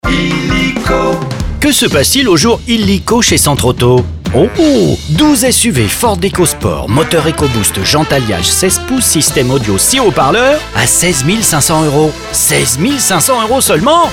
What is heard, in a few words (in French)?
Spot radio; illico